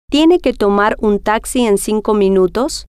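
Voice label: tiene que tomar taxi cinco minutos, Spanish, female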